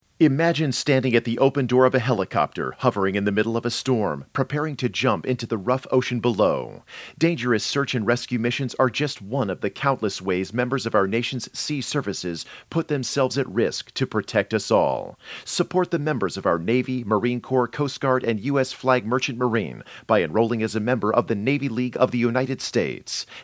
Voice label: radio psa; seaservices